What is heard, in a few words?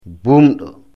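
"bumdo", Fula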